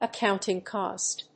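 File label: "accounting cost", English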